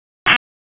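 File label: Dispatch 1, P25; wake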